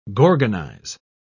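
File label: "gorgonize", English, British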